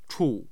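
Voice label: "chu", Mandarin Chinese, tone 4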